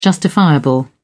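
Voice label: "justifiable", British English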